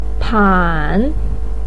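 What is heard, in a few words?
"pan", Mandarin Chinese third tone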